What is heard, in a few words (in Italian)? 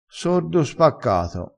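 "sordo spaccato", vocabolario dialettale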